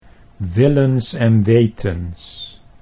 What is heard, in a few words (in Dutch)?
"willens en wetens", Dutch